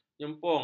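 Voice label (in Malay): "jempung", Malay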